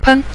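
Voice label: "pen", Mandarin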